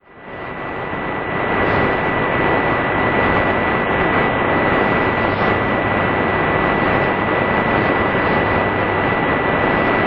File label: 15310 kHz oromo; Bible Voice BCN; Degen DE 1103; filo 5m indoor; St. Ursen